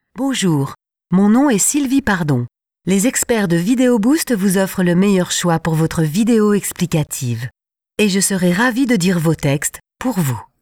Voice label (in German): Franzoesisch; internationale voiceover